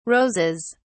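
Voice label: "roses", British English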